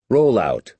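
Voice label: "roll-out", American English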